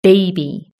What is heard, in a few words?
"baby", british english